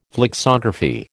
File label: "flexography", American